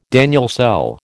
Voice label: "Daniell cell", American

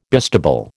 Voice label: "bistable", American

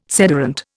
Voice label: "sederunt", Indian

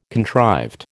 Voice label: "contrived", American

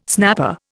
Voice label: "snapper", Indian

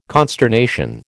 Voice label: "consternation", American

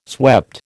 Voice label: "swept", American

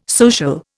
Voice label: "social", Indian

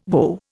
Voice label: "whoa", Indian

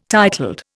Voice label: "titled", Indian

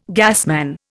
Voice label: "gasman", Indian

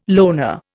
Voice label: "loner", Indian

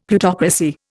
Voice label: "Plutocracy", Indian; Dictionary

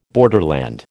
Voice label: "borderland", American

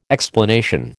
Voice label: "explanation", American

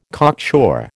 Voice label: "cocksure", American

